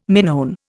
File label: "Minoan", Indian